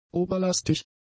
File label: "oberlastig", German; adjectives